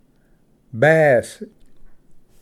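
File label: "bass", English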